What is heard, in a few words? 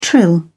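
"trill", British English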